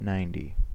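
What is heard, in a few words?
"ninety", US English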